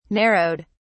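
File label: "narrowed", British English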